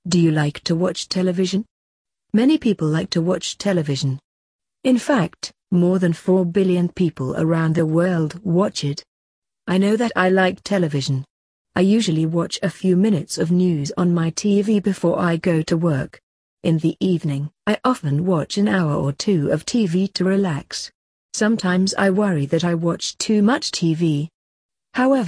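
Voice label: British; world tv day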